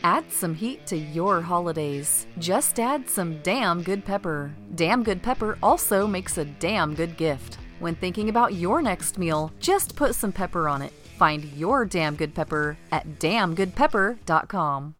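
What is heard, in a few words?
commercial, English US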